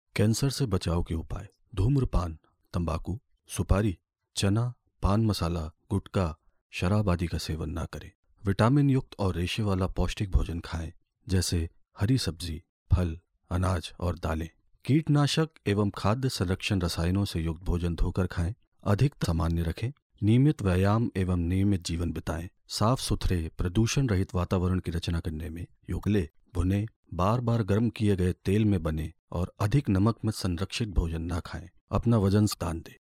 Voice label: male hindi voice over talent narrative

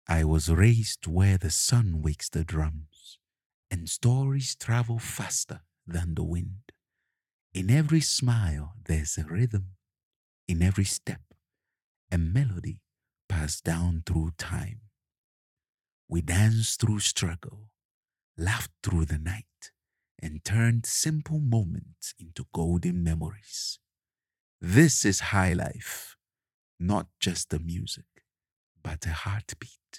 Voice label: male african voice over narrative

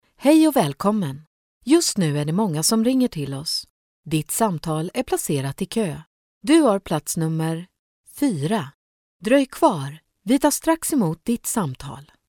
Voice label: female swedish voice over narrative

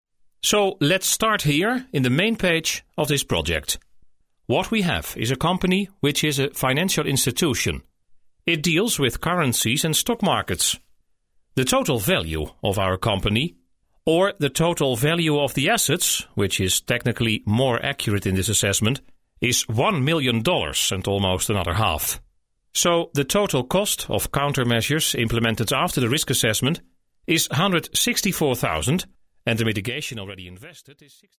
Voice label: male dutch voice over english

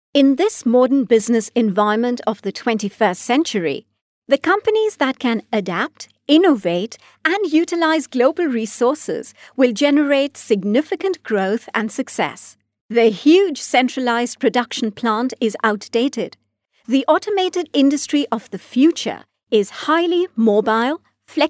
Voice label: female indian voice over narrative

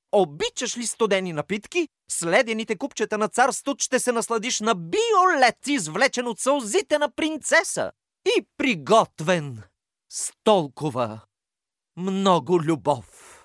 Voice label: male bulgarian voice over character

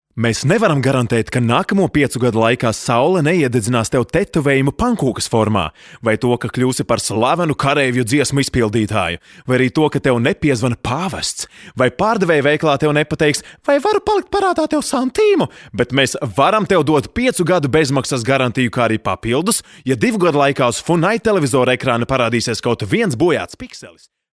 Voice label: male latvian voice over talent upbeat

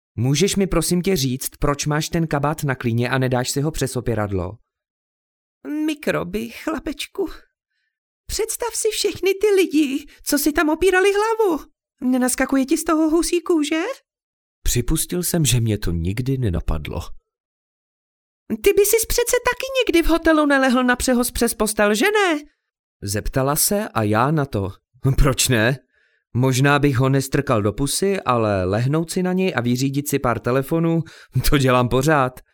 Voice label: male czech voice over character

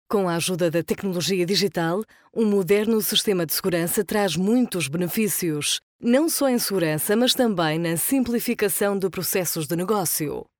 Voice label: female portuguese voice over upbeat, portugal

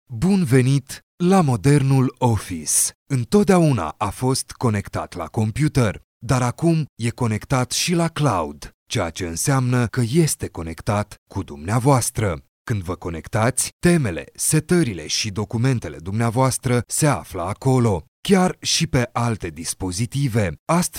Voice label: male romanian voice over talent upbeat

